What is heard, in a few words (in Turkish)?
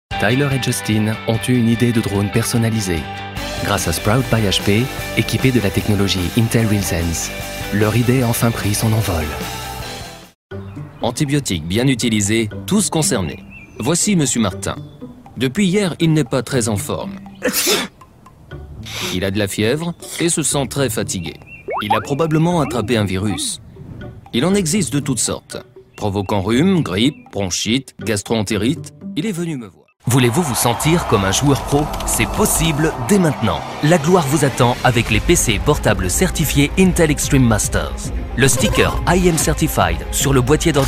Fransizca Erkek; seslendirme